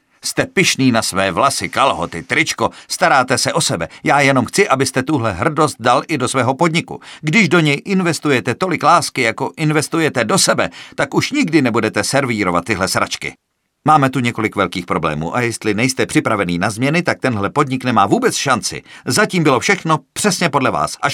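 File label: Male Czech drama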